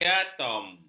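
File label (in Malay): "diatom", Malay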